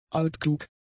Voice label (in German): "altklug", German; adjectives